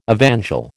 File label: "evangel", American